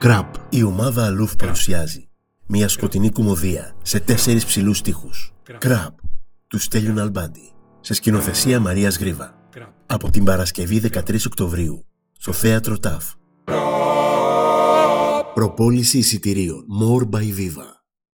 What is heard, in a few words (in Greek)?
ΚΡΑΠ Radio Spot